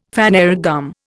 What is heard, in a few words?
"phanerogam", Indian; Dictionary